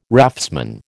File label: "raftsman", American